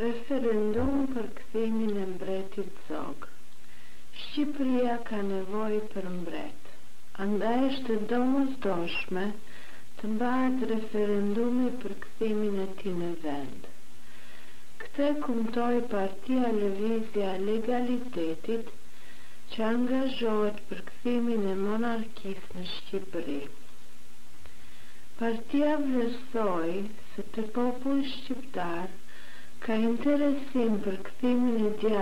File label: albanian readings; Albanian newspaper reader